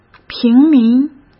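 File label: "平民", Mandarin Chinese; chinese flashcards; hsk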